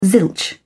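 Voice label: "zilch", British English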